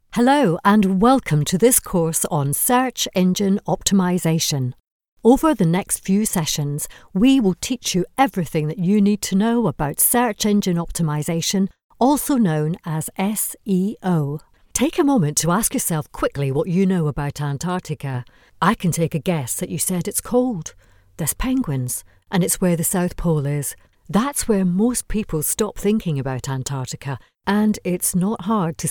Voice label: elearning reel